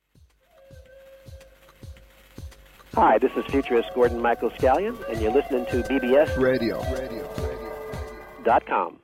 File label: bbs radio promo